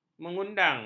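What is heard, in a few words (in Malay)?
"mengundang", Malay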